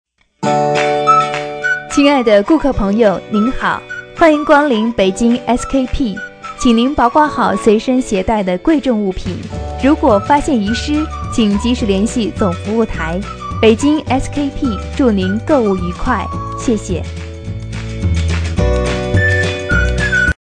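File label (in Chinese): 女话术 商场广播 甜美亲和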